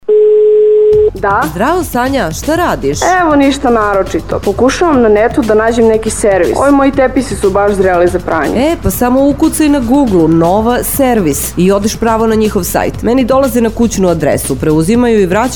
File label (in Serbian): Radio reklama; Nova-servis